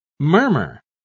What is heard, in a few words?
murmur